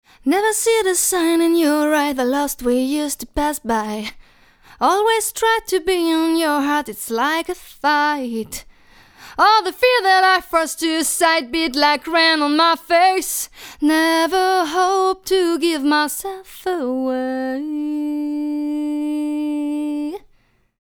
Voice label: rode classic